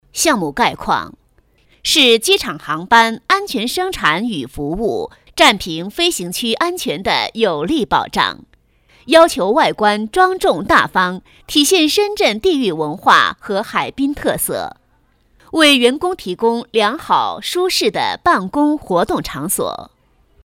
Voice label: 多媒体, 国语女声配音; 深圳机场

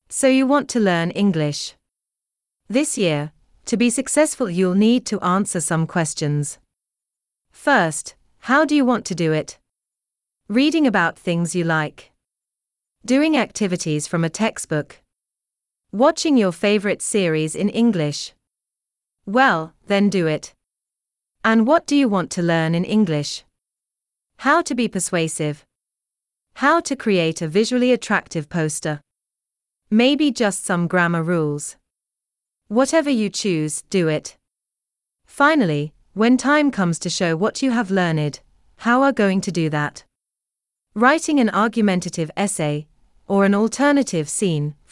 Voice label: 外籍英语女; 如何学好英语

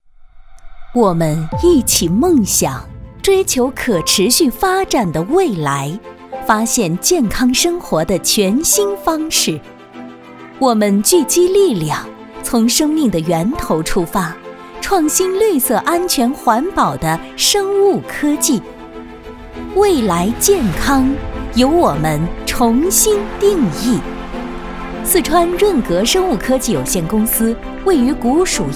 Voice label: 女 科技感大气宣传片

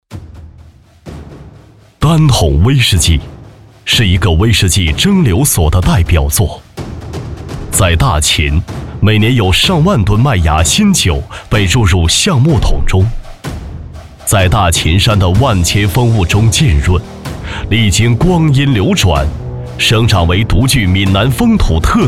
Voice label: 男, 广告 酒类

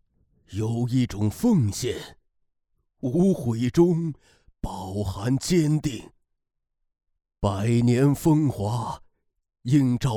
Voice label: 老爷爷朗诵

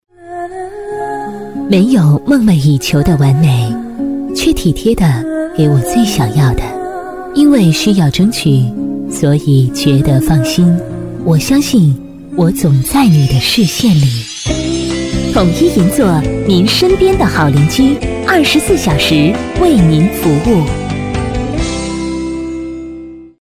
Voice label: 女 广告 地产类; 统一银座

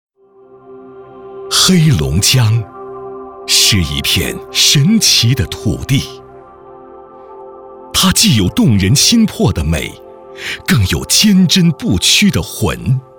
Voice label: 男 建党百年样音 正气凛然 百年奋斗

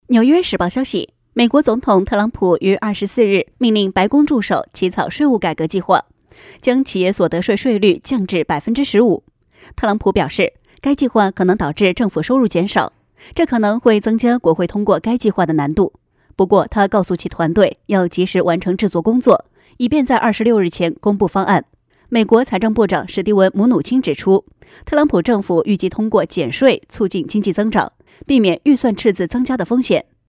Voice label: 新闻 大气干练, 国语女声配音

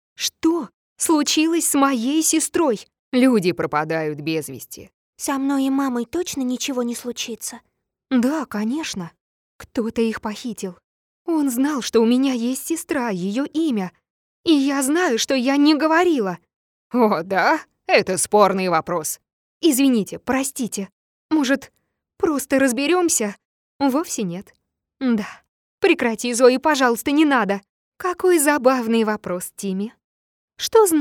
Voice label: 外籍俄语女 电影配音 Movies Sample

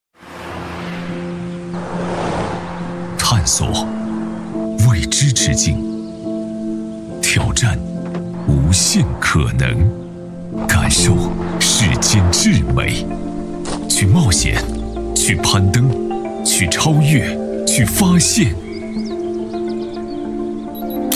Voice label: 男, 广告类; 比亚迪宋DM-i上市两周年官方广告

